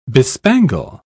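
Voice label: "bespangle", British English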